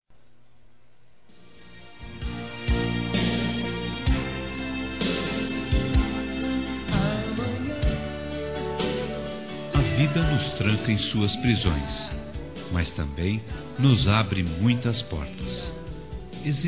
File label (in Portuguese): OTIMISMO M; Telemensagens; masc